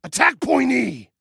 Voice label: ATTACK, Male

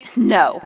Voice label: Team No, Female; T2 Female 01

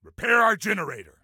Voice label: Repair Generator, Soldier Male; Soldier Male 03

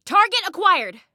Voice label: Target Acquired, Female; Female 02

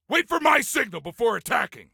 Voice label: Attack Wait, Soldier Male; Soldier Male 03

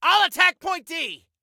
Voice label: ILL ATTACK, Male; T2 Male 03

